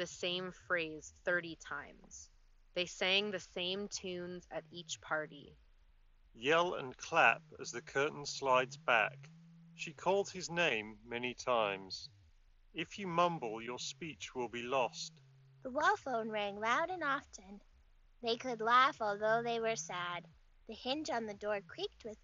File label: Anker Soundcore Motion Ideal microphone sample